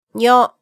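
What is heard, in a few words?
"nyo", Japanese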